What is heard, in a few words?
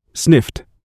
"sniffed", English; С окончанием -ed